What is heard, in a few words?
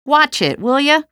cit hit, female; Half-Life 2; trainyard